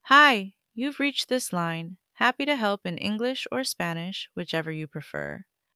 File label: formal